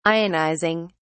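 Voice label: "ionizing", English, British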